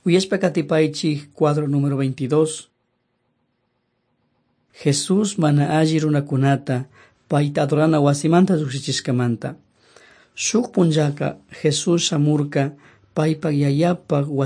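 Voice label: quichua chimborazo, drama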